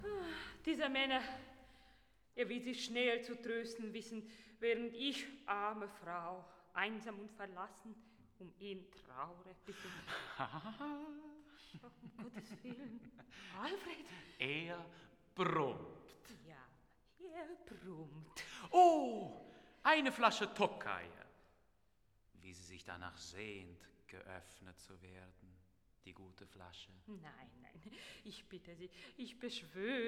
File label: dialog; Johann Strauss II; Die Fledermaus; Bavarian State Opera